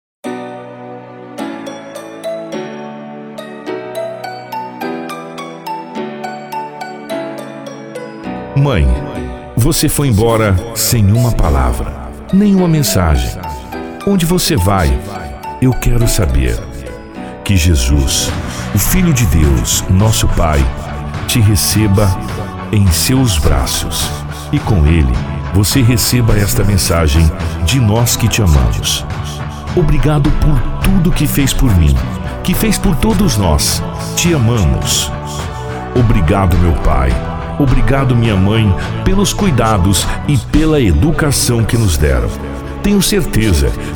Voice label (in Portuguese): Locutor, Homenagem a Mae